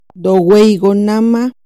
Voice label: ¡Ay vienes otra vez!, Chontal

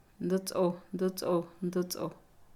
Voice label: equivocación, error, Pame; Emocionesyvalores; INALI

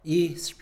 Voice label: "ver", Mixe, hombre; Percepción

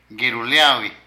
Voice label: Cerrojo, Zapoteco de Petapa; Casa; Vocabulary

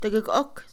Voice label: tres veces, Mixe, Mujer; Cantidad; INALI

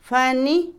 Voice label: caballo, Otomí, mujer; Animales; INALI

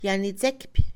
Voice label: Mixe, Mujer; salvar; Posesión; INALI

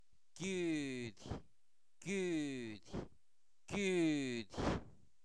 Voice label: Zapoteco Albarradas, santo domingo albarradas; INALI; cuerpo; pierna

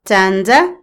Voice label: carro, Otomí, mujer; Moderno; INALI

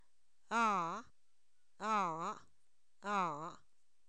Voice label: Zapoteco, san miguel albarradas; si; adverbios

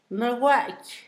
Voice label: quemado, Zapoteco, Asunción Ocotlan; Adjetivos; INALI; Haspelmath

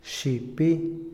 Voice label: explicar, Otomí, hombre; Cognición; INALI

